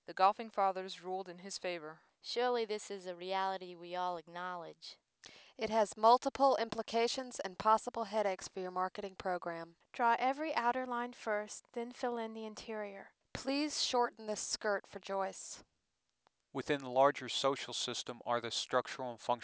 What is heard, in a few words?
clean speech